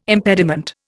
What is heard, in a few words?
"impediment", Indian